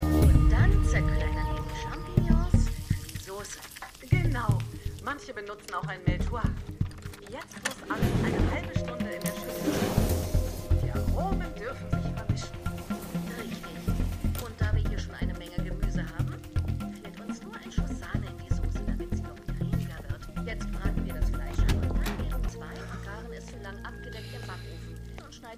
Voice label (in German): Kochshow Frau